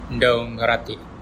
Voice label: no understand, bonggi